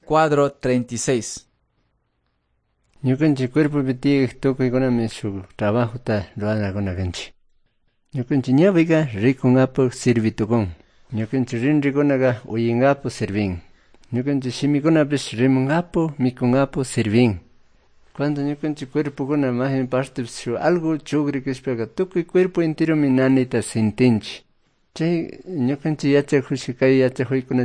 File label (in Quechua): good news quichua cayambe